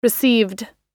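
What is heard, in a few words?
"received", American English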